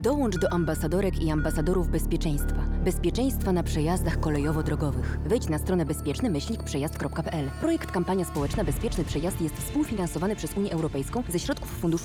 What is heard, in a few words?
radio